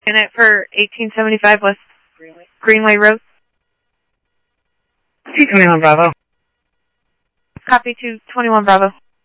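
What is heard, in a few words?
police department, scanner audio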